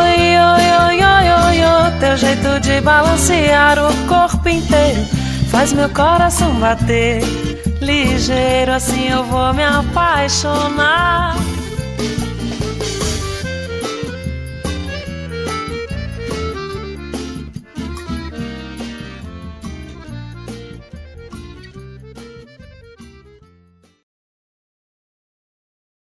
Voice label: ROM SAUDADE FEM; Telemensagens; Saudades

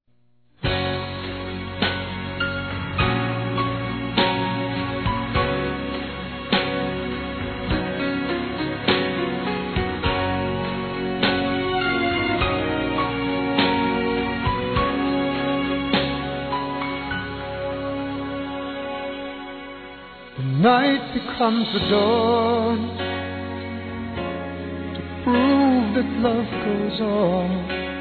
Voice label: Aniversário Esposo Feminino; Aniversários; Aniv Familiar

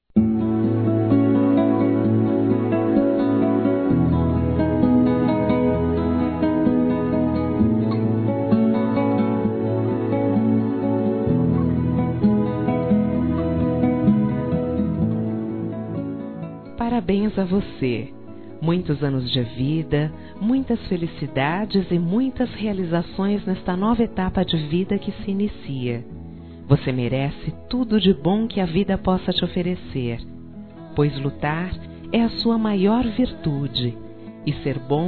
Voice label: NEUTRO 24 H F; Aniversários; Aniversários diversos; fem

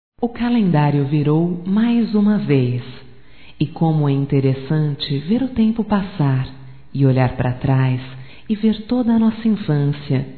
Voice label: ANIV IRMA, fem; Aniversarios; Telemensagens; Telemensagens 2017

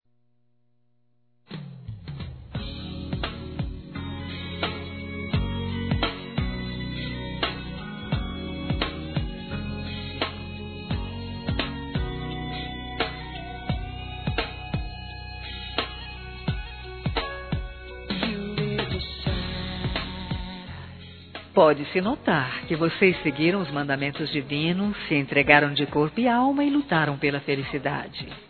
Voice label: CASAMENTO P CASAL F; Aniversários; ANIVERSARIO romantico; fem

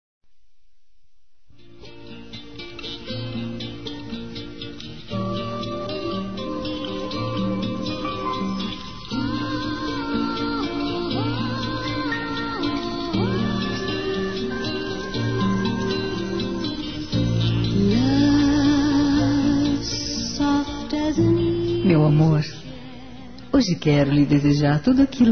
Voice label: aniversario namorado, fem; Aniversários; ANIVERSARIO romantico

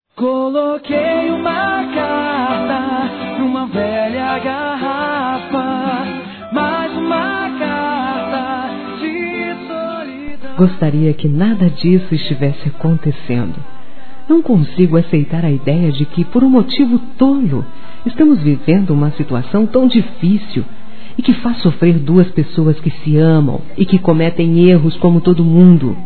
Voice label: DESCULPAS ROMANT. F, Telemensagens; fem; Telemensagens 2017

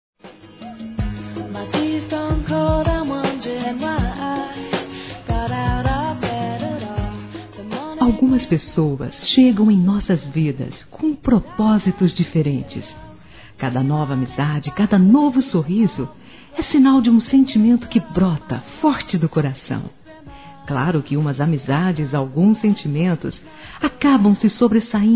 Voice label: mãe de consideração, F; Telemensagens 2017

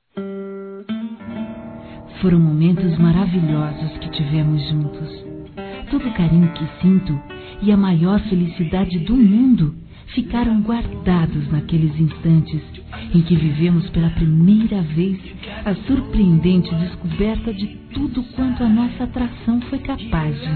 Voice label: Feminino, Telemensagens Amor; 1º Noite de Amor

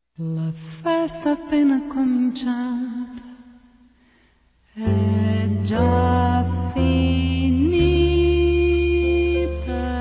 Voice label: Mensagem de Fé, Gospel, masc

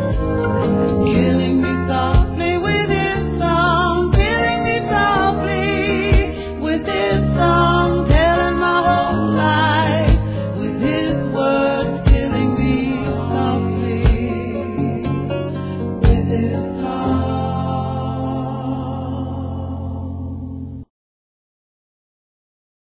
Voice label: Homenagem Para Sogra Masculino, Telemensagens; Homenagens

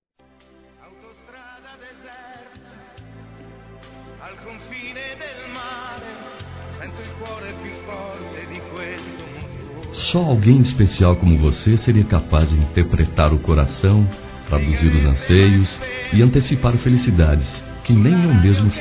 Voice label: Adorei a Surpresa Masculino

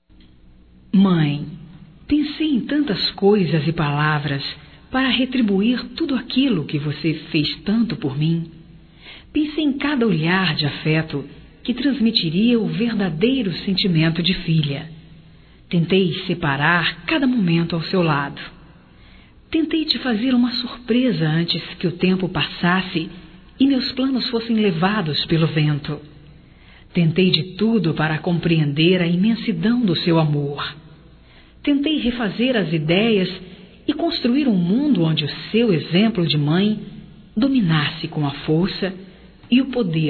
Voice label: Homenagem a Mãe Feminino, Telemensagens

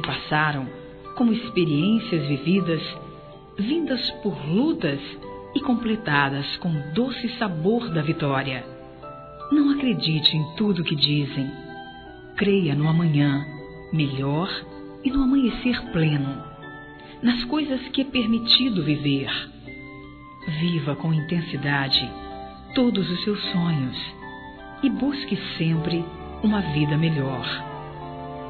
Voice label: ANIVERSÁRIO DE PADRINHO F, Telemensagens, Fem; Aniversários; Aniv Familiar

